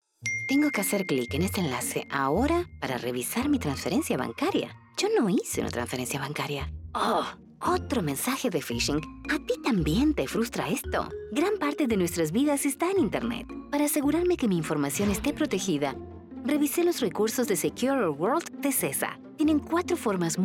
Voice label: Radio PSA, SPA; CISA